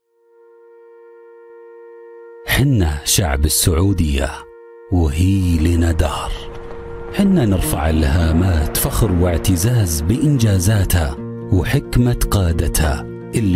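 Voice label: Arabic, commercial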